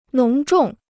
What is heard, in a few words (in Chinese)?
"浓重", Chinese